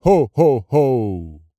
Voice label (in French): VOX Male Pere noel oh oh oh